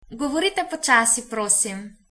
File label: slowly, slovenian; Govorite počasí, prosim